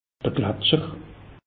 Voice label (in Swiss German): "glatscher", alsace; meteo